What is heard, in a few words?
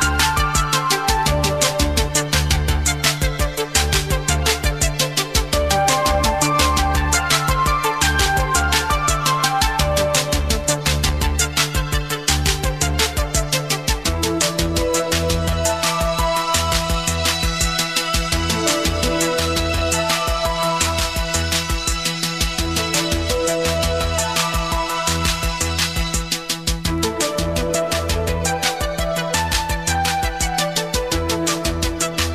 travel, male 'shravel' plinky bed; bailrigg fm; bfm jingles ads RSL 1994